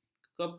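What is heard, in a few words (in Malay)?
"kepung", Malay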